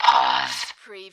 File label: Robot voice "pause"